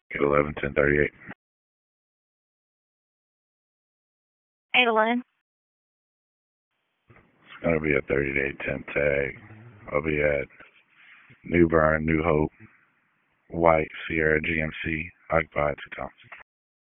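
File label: Wake Sheriff's Office Dispatch, p25; DISP 1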